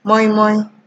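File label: "moi moi", Nigerian Pidgin, female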